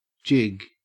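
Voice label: "jig", Australian English; pronunciations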